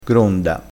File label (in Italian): "gronda", crespinese